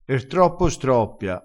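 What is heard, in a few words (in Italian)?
"er troppo", vocabolario dialettale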